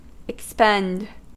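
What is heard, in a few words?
"expend", American English; pronunciations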